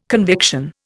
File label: "conviction", Indian